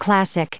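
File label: "classic", English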